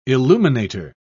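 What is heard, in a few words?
"illuminator", British English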